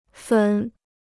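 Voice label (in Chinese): "粉", Chinese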